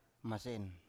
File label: "masen", Biak